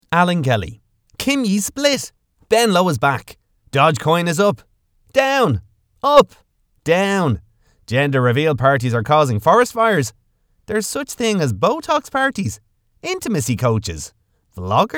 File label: Irish Comic